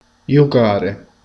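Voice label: "giocare", Italian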